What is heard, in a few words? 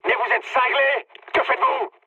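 French player killed ally mood high; War Thunder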